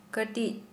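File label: Santali, female, small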